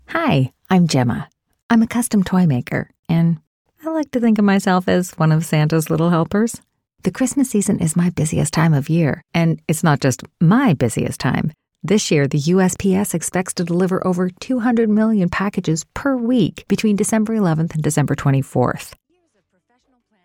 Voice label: Voice Over Artist Real Person Believable; USPS

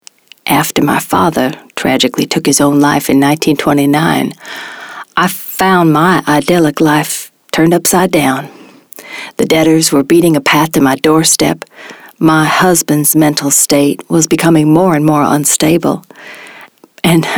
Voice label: Southern US

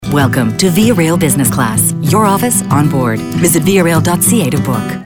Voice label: informative business; Via Rail

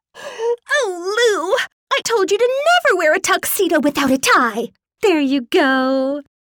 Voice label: Animated Quirky

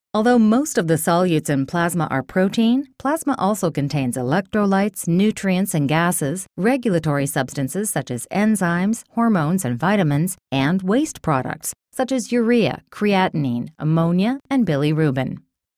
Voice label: Engaging Confident

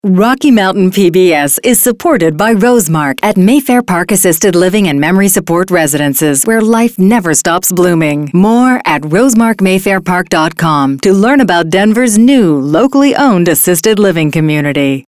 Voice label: Friendly Corporate; RoseMark PBS

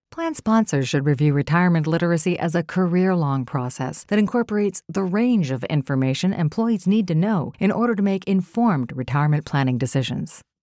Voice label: Planning Smooth Attractive

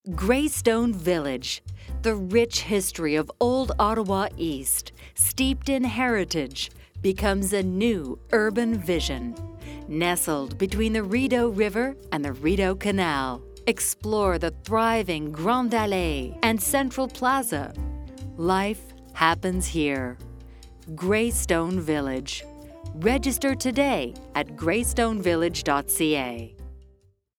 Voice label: Strong Positive; Greystone

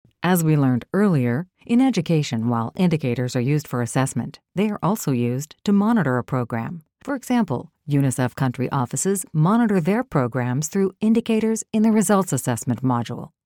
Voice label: Calm

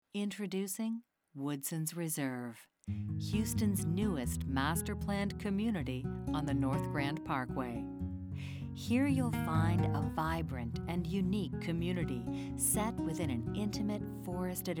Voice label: Natural Classy; Woodsons

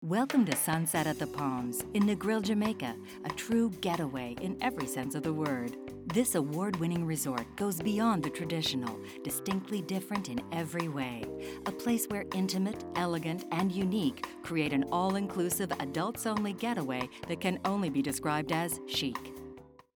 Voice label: Jamaica Calm smooth

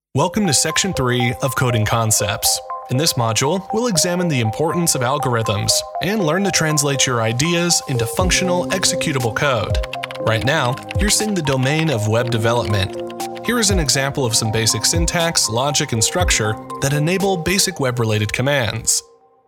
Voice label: Coding Concepts Elearning

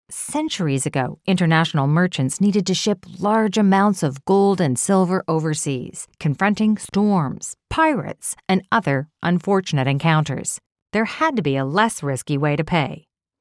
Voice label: Storyteller Genuine

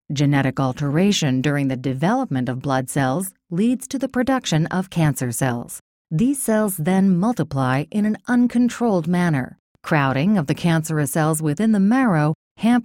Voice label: Med Smooth Authoritative

